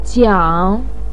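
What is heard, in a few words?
"jiang", Mandarin pinyin, third tone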